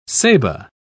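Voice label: "ceiba", British English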